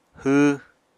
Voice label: "hww", Apatani